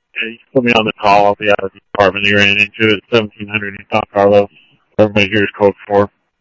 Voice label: Tempe Police, scanner audio

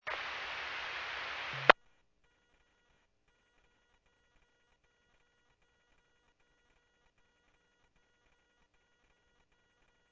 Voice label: Medevac, scanner; NativeAirAmb

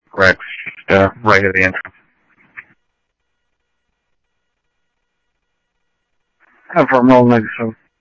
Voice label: Scottsdale Police, scanner audio

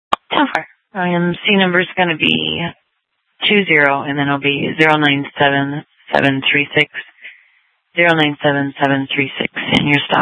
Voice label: Sheriff, scanner P25

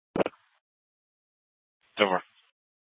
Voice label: Dispatch; Scottsdale

